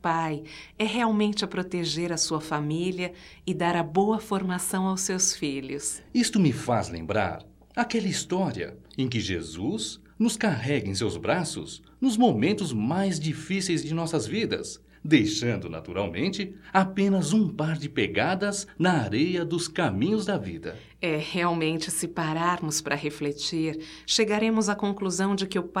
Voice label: Dia dos Pais Anjo da Guarda Duas Vozes; Telemensagens; Só Voz; DATAS COMEMORATIVAS; masc

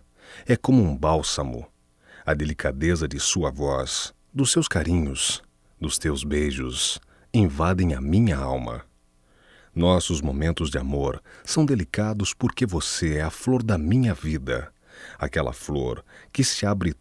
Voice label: Sou Feliz Masculino, Só Voz; Telemensagens; MOMENTOS DE AMOR

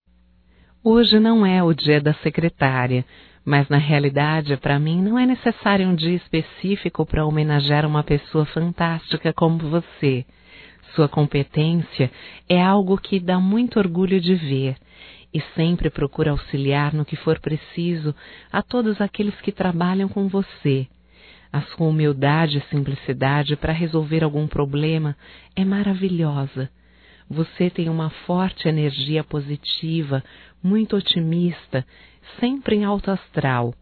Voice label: HOMENAGEM A SECRETARIA (F), Só Voz; Telemensagens; HOMENAGENS

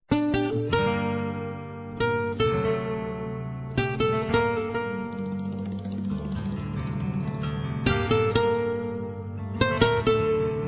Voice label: GERAL M; Telemensagens 2017

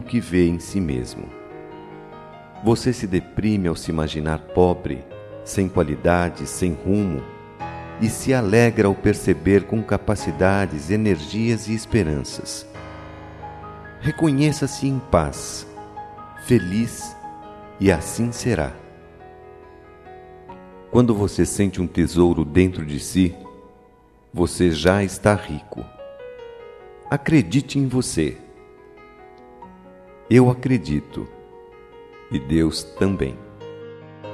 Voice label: RIQUEZA INTERIOR, Masc; OTIMISMO

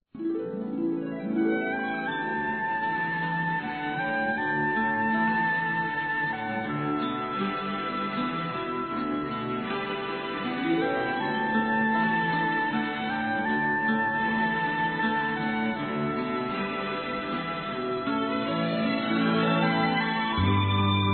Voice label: FUTURA MÃE F; fem

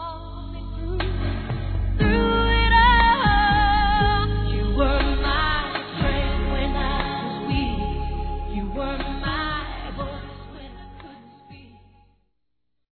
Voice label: Obrigado Pelo Presente, Masc; Telemensagens; Convites e Agradecimentos; Telemensagens 2017

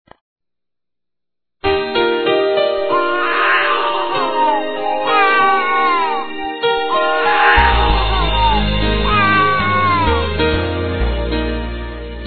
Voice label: Parabéns Futuro Papai Masculino; Telemensagens; Felicitações; Telemensagens 2017

